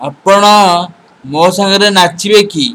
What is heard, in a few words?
dance, odia